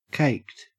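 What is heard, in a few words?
"caked" English, Australian accent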